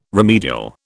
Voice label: "remedial", British

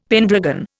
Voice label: "pendragon", Indian; Dictionary

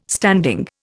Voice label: "standing", Indian

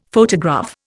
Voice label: "photograph", Indian; Dictionary

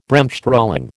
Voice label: "Bremsstrahlung", American

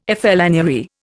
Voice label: "flânerie", Indian

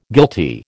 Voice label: "guilty", American

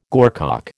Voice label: "gorcock", American

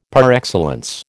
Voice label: "par excellence", American

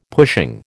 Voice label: "pushing", American

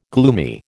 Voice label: "gloomy", American

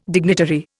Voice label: "dignitary", Indian

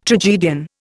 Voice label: "tragedian", Indian

